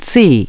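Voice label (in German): "C geschlossen", German; DISTEFORA Navigation; Mobile Navigator Notebook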